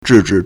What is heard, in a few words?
"zhi4 zhi3", Chinese